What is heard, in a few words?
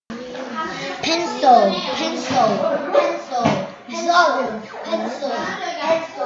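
"pencil", spoken word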